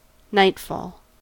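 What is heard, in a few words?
"nightfall", American English; pronunciations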